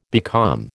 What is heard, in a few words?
"becalm", American